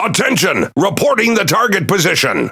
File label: Pirate Speech Priority Target; World Of Warships